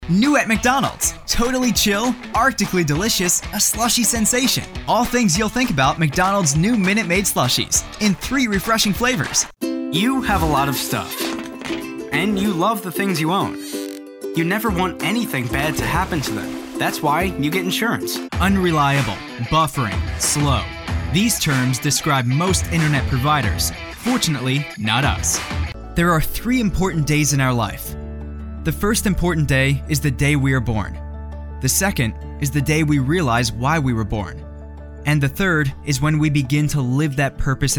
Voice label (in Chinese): 外籍男 广告